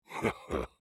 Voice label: Emote Positive

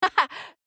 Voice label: Emote Positive; Juliette

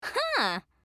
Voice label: Emote Positive

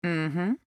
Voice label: Emote Positive; Estelle

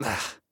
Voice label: Zentaro Emote Negative

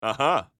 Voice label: Emote Positive

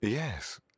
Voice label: Emote Positive

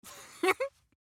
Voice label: Emote Positive

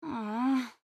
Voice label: Emote Negative; Juliette

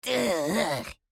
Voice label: Dubu Emote Negative

